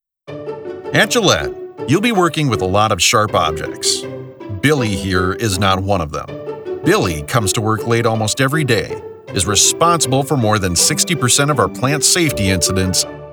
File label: E-Learning